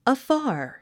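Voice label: "afar", English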